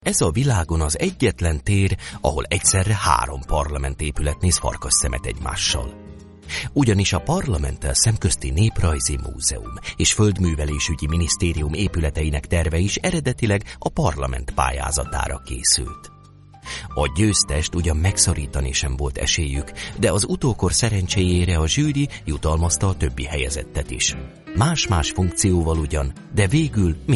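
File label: Hungarian, male, narration; voice over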